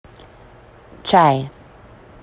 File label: in Swahili